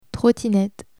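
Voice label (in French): "trottinette", French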